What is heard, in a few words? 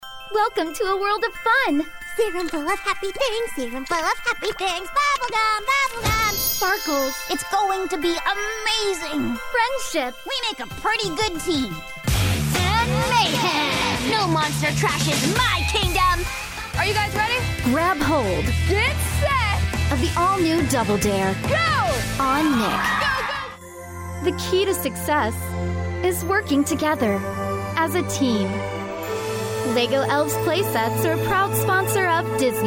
Female, USA, English, American